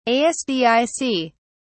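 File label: "asdic", British English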